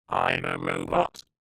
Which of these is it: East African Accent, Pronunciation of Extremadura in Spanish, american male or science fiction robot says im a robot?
science fiction robot says im a robot